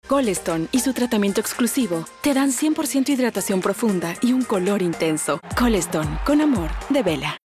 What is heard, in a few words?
Articulate Authoritative Engaging; Koleston